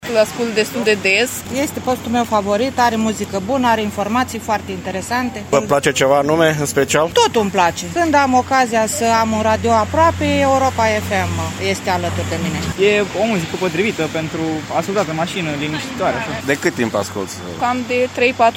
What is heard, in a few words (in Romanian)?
Voxuri ascultatori tineri si adulti; Suceava; Europa Fm